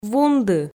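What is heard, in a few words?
"вунды", Komi, female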